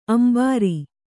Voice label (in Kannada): "ಅಂಬರಿ", Kannada